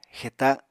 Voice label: "xeta", Apatani